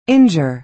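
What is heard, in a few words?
"injure", American English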